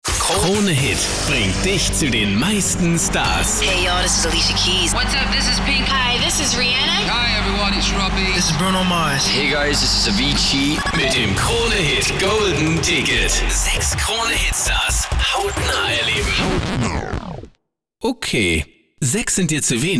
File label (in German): Station Voice Sprecher PROMO